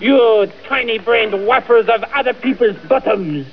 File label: male taunt; starsiege